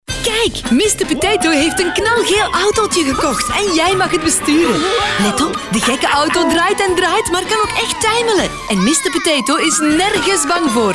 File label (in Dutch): kids; Kinder; Mr Potatoe